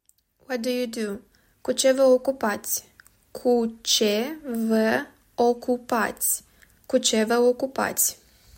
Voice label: What do you do, romanian